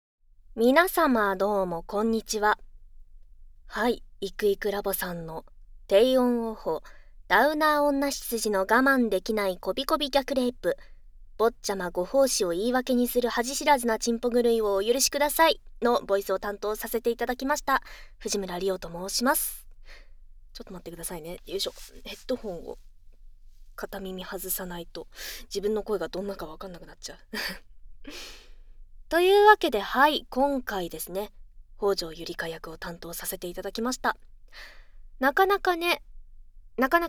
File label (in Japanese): フリートーク, ASMR; おまけフリートーク; 【低音オホ】ダウナー女執事の我慢できない媚び媚び逆レイプ ～坊ちゃま、ご奉仕を言い訳にする恥知らずなち●ぽ狂いをお許し下さい～